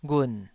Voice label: "ghun3", Taiwanese syllable